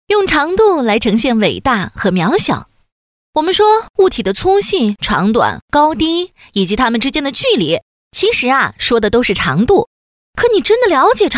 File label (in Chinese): 女 飞碟说 年轻活泼; 从宇宙到原子; MG飞碟说配音